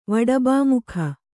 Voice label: "ವಡಬಾಮುಖ", Kannada